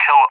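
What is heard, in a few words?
"kill", English, UK; DCS; Allied Flight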